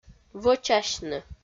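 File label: "вӧчасьны", Komi, female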